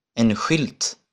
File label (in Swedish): "en skylt", Swedish